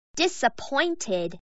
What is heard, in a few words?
disappointed